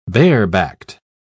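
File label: "barebacked", British English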